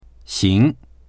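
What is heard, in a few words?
"xing2", Mandarin Chinese; 行; ＝“可以”（よい、かまわない）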